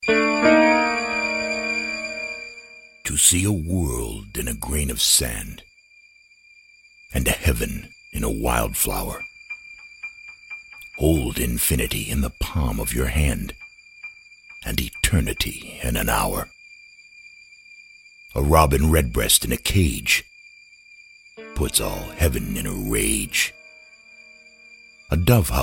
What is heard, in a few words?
Drama Poems Gaming Trailers